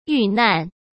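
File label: "遇难", Mandarin Chinese; hsk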